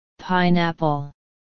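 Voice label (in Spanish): "pina", Spanish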